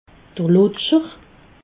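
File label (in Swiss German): "lutscher", Alsatian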